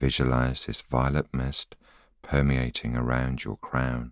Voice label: voiceover